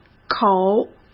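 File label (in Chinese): "口", Mandarin Chinese; hsk; chinese-flashcards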